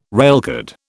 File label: "railcard", British